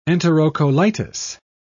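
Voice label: "enterocolitis", British English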